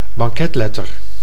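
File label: "banketletter", Dutch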